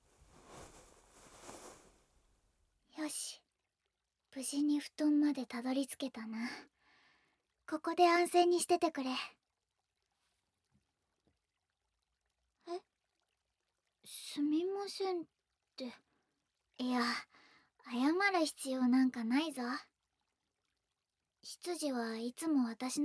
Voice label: 添い寝; 元気を充電する方法

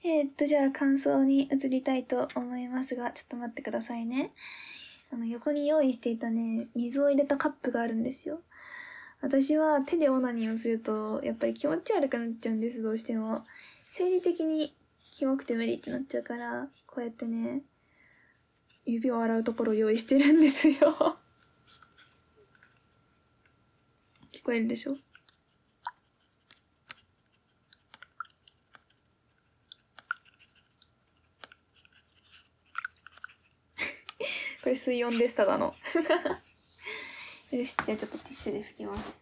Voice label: フリートーク

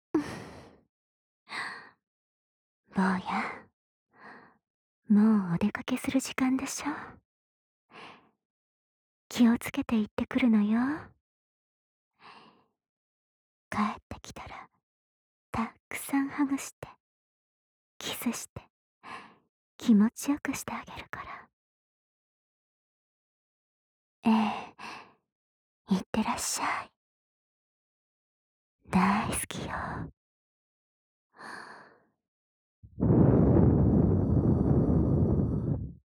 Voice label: お見送りボイス(ママ); ショコラママ

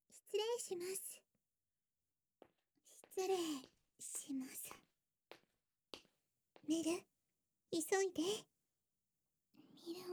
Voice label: 超密着耳舐めえちえち囁き SE

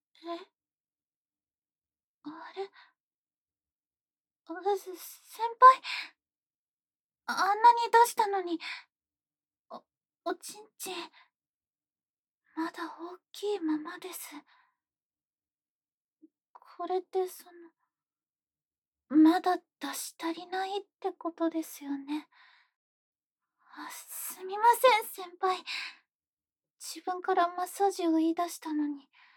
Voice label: マッサージ SEなし; 03.こ……こっちでマッサージ、なんてどうですか……？

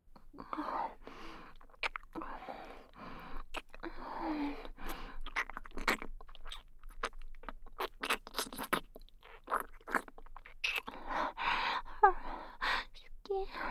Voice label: 超密着好き好き連呼ベロチューえっちループトラック, ASMR

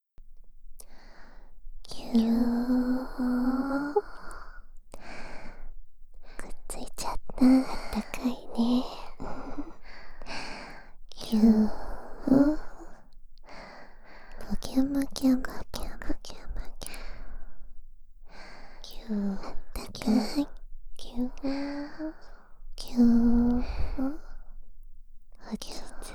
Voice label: 両耳同時ゼロ距離全肯定ささやき; 両耳同時に癒やしのささやき

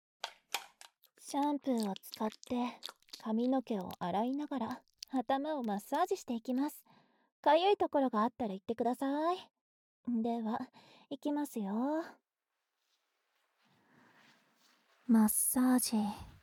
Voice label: シャンプーしながら…えっちな囁き, ASMR

